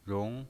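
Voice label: "rong", Mandarin Chinese pinyin voice, second tone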